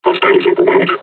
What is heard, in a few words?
taunt, combine charger; Half Life Alyx